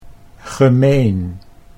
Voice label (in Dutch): "gemeen", Dutch